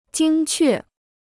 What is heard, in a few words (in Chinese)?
"精确", Chinese